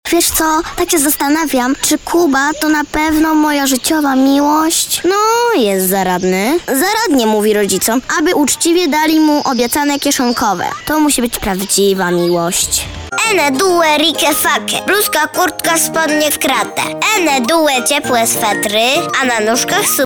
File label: polish child voiceover locutora infantil polonia